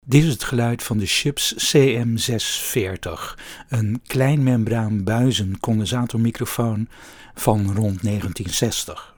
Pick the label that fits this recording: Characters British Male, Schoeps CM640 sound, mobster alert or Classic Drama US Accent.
Schoeps CM640 sound